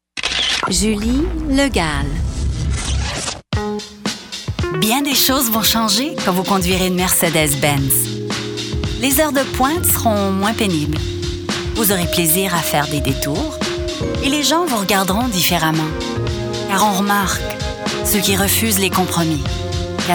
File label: Commercial French Legal